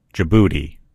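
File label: "djibouti", English; gcompris; geography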